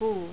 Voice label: "puu", Finnish